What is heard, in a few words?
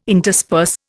"intersperse", Indian